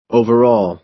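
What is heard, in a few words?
"overall", American English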